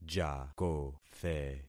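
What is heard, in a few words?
"jakothe", concatenated syllables; Affixing experiment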